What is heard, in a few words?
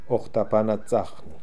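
"Okhtapanatsakhnee", pronunciations; Fourth Way; Beelzebub pronounciations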